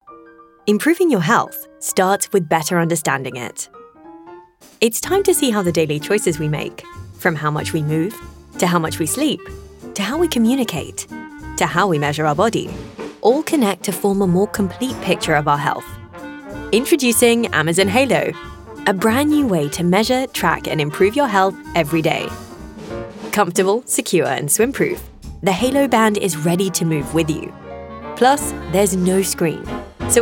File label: Brit, British Female